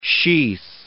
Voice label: "sheath", English